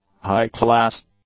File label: "high-class", English; adjectives